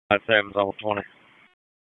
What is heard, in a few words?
PS TAC, p25